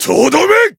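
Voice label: Voice finish, Japanese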